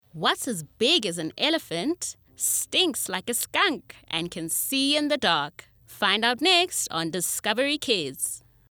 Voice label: kids friendly